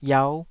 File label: "iau5", Taiwanese syllable